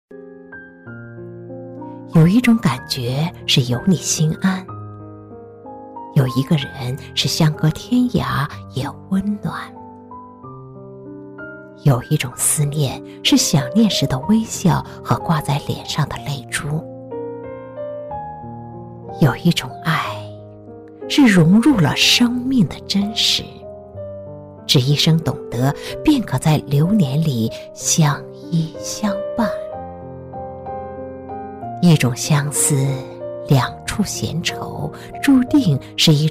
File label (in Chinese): 女 散文 抒情; 散文有一种感觉是有你心安